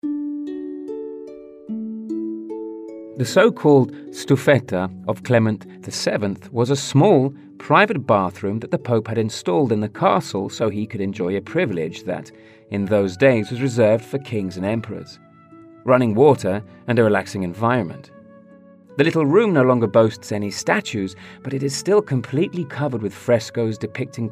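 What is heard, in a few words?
English, male, documentary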